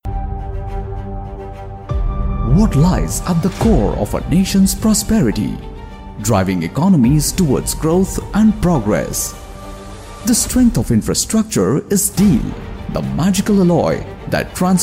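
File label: English Corporate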